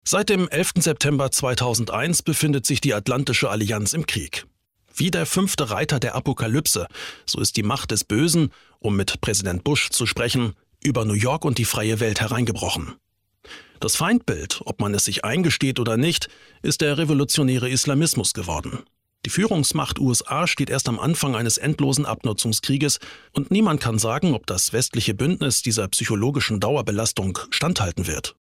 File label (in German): dokumentation amerika